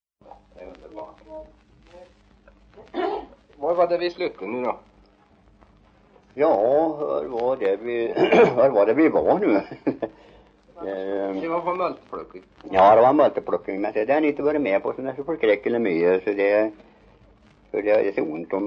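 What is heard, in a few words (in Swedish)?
Järnskog Värmland, Svenska sagor, dialekter; Folkminnen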